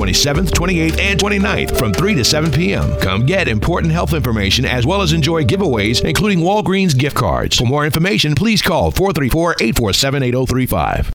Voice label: commercial hiv testing